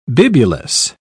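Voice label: "bibulous", British English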